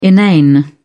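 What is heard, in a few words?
"inane", British English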